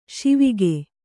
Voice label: "ಶಿವಕ", Kannada

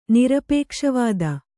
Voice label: "ನಿರಪೇಕ್ಷವಾದ", Kannada

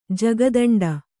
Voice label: "ಜಗದಿಂಡೆ", Kannada

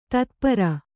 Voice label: "ತತ್ಪರೆ", Kannada

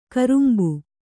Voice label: "ಕೊರಂಬೆ", kannada

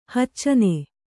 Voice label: "ಹೊಚ್ಚನೆ", Kannada

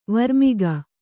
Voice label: "ವರ್ಮಿಗ" Kannada; varmiga; noun; = ವರ್ಮಗ್ನ

